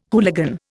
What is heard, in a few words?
"hooligan", Indian